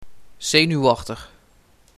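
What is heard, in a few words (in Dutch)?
"Zenuwachtig", Dutch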